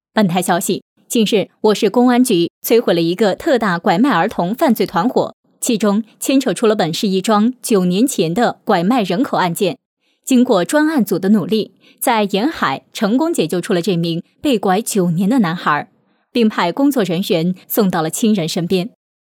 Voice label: 女, 新闻; 本台消息

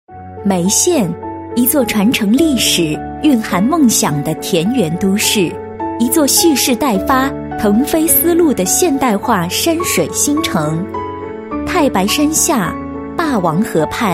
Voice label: 专题片配音; 眉县

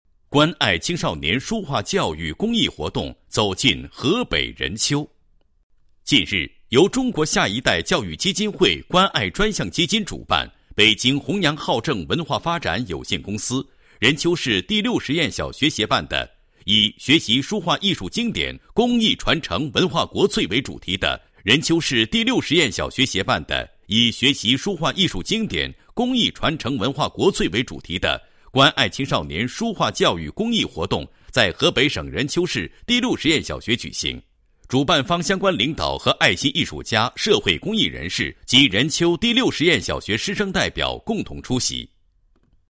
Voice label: 男 公益活动 解说; 青少年书画教育公益活动

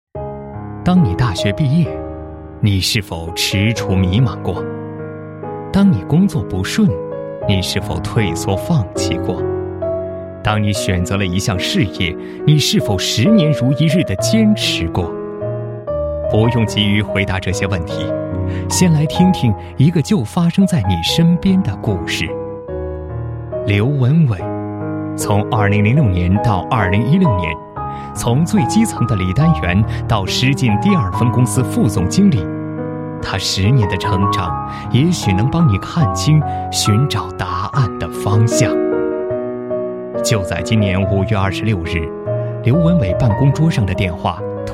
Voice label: 男-人物; 狮丹努

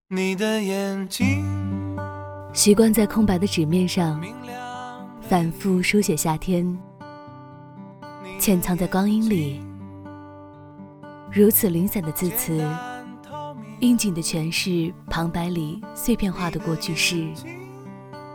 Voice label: 女, 独白; 猫的树风格

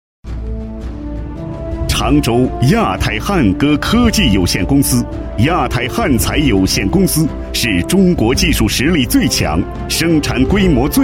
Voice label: 男 专题 企业宣传介绍, 国语男声配音, 浑厚; 亚太

